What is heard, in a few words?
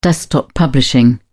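"desktop publishing", British English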